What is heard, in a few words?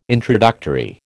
"introductory", American